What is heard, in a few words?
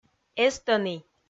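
"эстӧні", Komi, female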